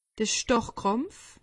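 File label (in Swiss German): "starrkrampf", Alsatian; medecine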